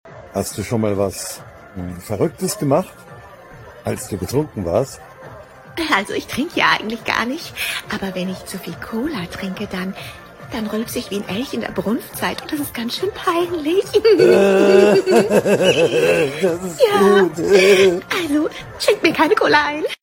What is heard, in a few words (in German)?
Dialog humorvoll quirlig